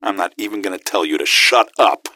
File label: answer, gasmask; scmod